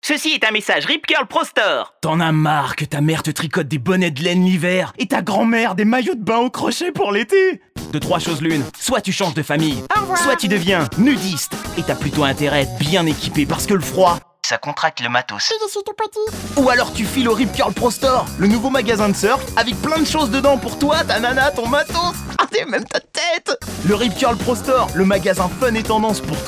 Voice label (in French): radio pub spot